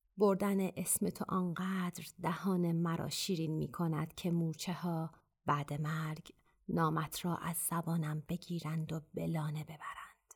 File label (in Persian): نمونه صدای دوم شعر